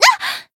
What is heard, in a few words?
Voice damaged jp